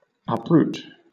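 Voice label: "uproot", British English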